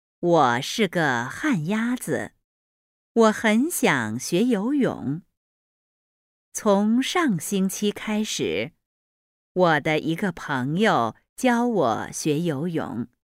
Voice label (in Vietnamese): luyen nghe tieng trung chu de boi loi